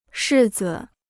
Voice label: "柿子", Chinese

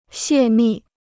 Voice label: "泄密", Chinese

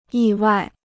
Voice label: "意外", Chinese

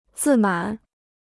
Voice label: "自满", Chinese

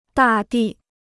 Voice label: "大地", Chinese

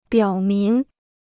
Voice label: "表明", Chinese

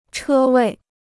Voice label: "车位", Chinese

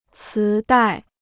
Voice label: "磁带", Chinese

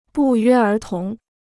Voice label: "不约而同", Chinese

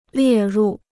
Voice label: "列入", Chinese

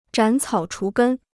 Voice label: "斩草除根", Chinese

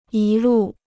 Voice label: "一路", Chinese

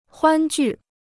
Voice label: "欢聚", Chinese

